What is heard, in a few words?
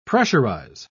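"pressurise", British English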